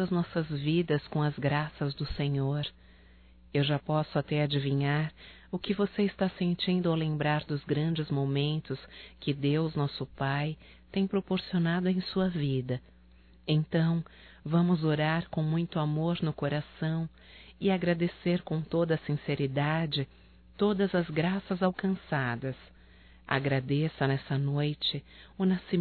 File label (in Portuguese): NATAL NEUTRO (F); Natal e ano novo; fem; Telemensagens 2017